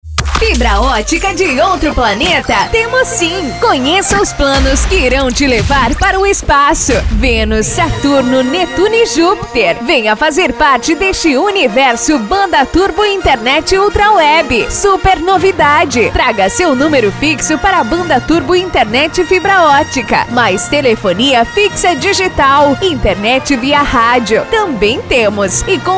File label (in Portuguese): spot comercial; Turbo Internet